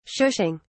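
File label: "shushing", British English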